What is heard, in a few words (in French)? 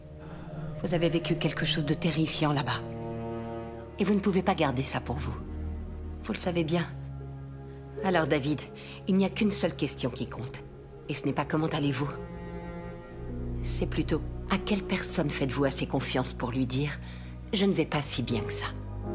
doublage; esprits criminels